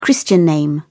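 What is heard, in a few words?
"christian name", British English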